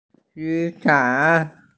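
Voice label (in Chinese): "yu3 san3" Mandarin Chinese, female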